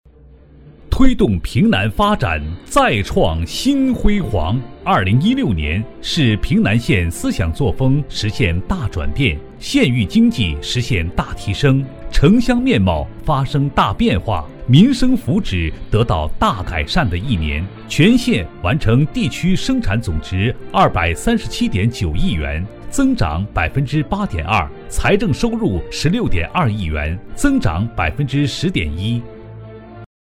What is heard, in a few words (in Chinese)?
男, 党政专题, 机关报告; 大气浑厚; 男60-党政专题-机关报告